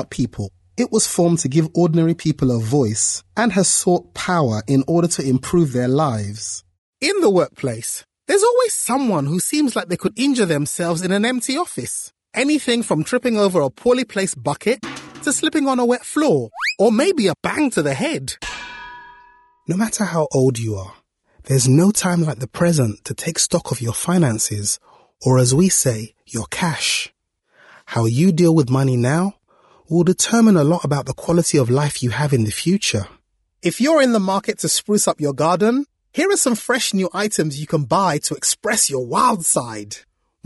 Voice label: e-learning